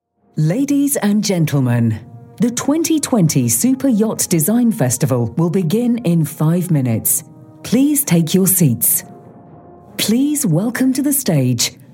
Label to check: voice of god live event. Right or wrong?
Right